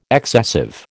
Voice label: "excessive", American